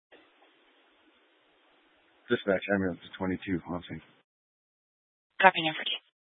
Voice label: Fire Dispatch, scanner